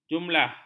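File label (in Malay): "jumlah", Malay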